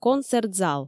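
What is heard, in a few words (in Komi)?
"концерт зал", Komi, female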